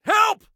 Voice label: Team Help, Soldier Male; Soldier Male 02

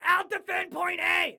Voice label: ILL DEFEND, Excited Guy

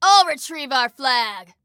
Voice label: Flag I Retrieve, Female

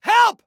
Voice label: Team Help, Soldier Male

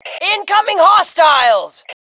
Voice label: Warn Enemies, Female; T2 Female 04

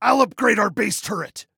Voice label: Upgrade Self Turret, Male

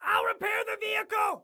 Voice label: Self Repair Vehicle, Excited Guy

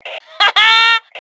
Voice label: Taunt Obnoxious, Female; T2 Female 03

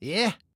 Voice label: "Yes", Male

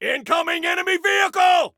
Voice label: Warn Vehicle, Soldier Male; Soldier Male 02

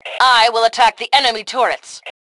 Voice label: Self Attack Turrets, Female; T2 Female 02

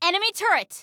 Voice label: Warn Context A Turret, Female; Female 02

